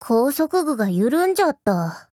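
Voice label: MVP Japanese; lind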